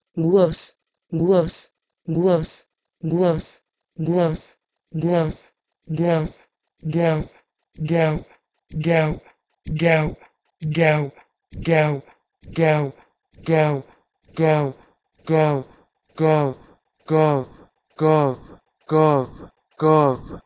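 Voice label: Proto-Indo-European gwous to Persian gov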